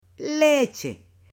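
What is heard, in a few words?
"leche", Spanish, female; AppLenguaje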